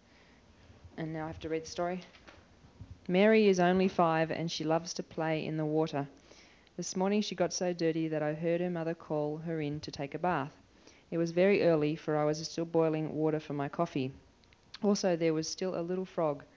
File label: English Australia